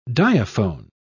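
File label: "diaphone", English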